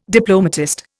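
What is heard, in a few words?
"diplomatist", Indian English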